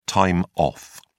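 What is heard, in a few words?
"time off", British English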